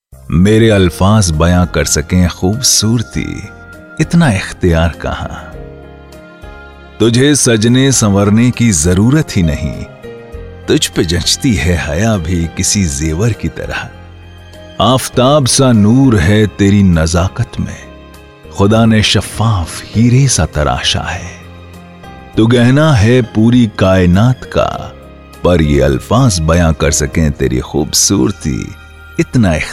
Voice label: Narration